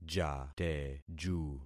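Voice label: "jateju", concatenated syllables; Affixing experiment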